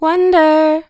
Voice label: "Wonder", Vocals, One-Words; GhostHack